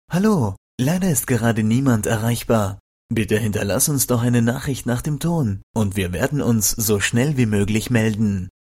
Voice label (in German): wir ansage, anrufbeantworter